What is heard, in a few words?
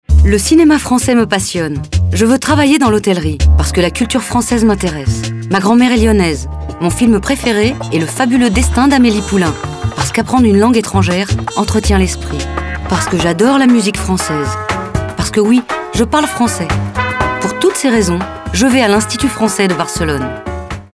Advertisement, FRENCH FEMALE